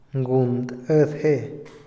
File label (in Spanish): "hospital", Otomí, hombre; Moderno; INALI